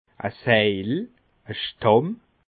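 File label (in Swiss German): "seidel", Bas Rhin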